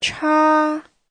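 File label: "chā", Mandarin Chinese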